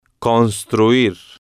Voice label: "construir", Spanish